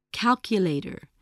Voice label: "calculator", spelling